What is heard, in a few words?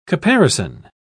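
"caparison", British English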